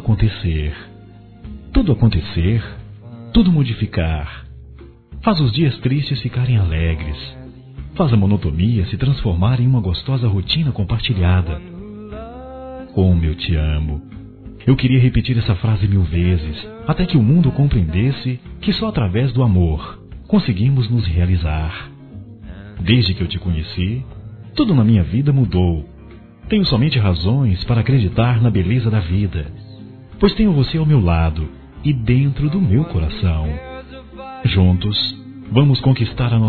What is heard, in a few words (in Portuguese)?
Forte Masculino, Telemensagens Românticas